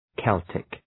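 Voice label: "Celtic", English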